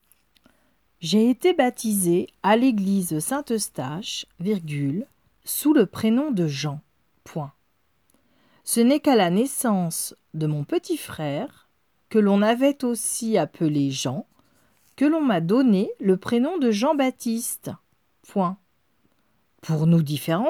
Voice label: dictée

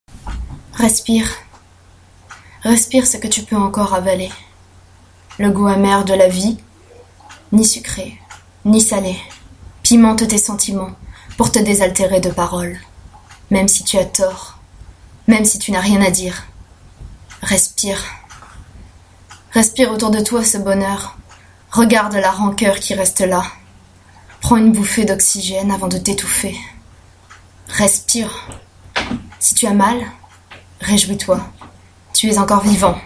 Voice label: lecture

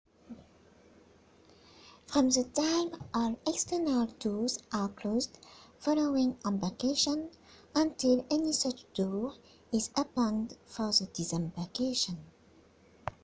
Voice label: réponse cockpit

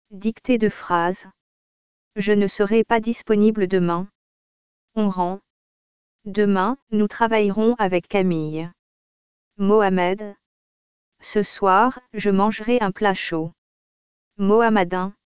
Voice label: dictée de phrases

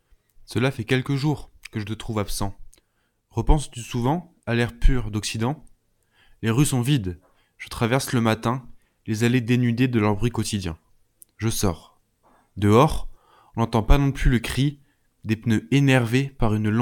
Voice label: voix melees

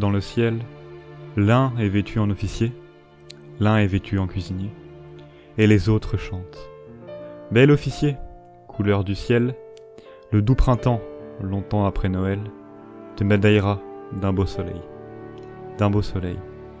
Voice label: lecture blanche neige

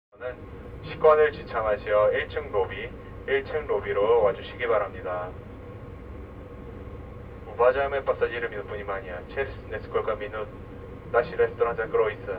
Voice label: info ferry coréen russe japonais

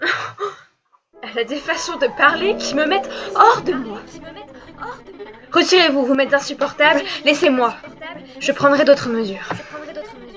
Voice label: lecture dialogue

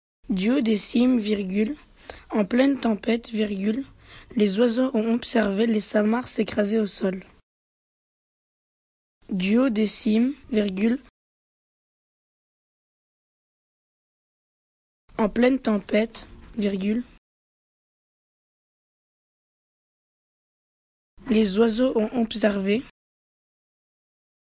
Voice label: dictée

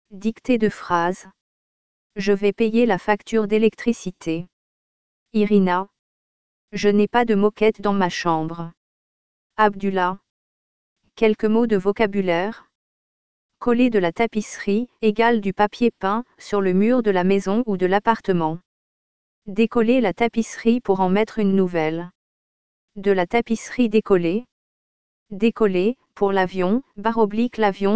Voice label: dictée de phrases

